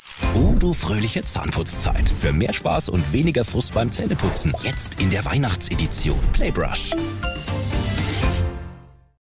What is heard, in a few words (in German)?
Imagefilm Weihnachten